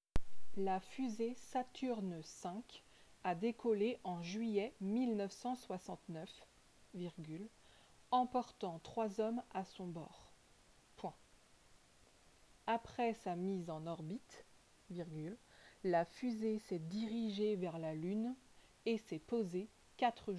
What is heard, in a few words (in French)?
dictee